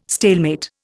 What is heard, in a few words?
"stalemate", Indian